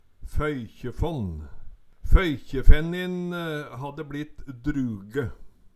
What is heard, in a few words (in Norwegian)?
"føykjefonn", Numedalsmål